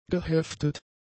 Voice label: "geheftet", German; adjectives